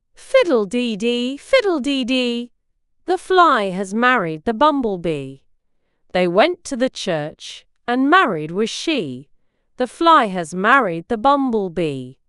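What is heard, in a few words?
female British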